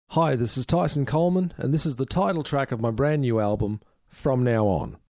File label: Radio Intros